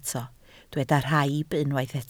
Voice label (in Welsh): "rhaib", Welsh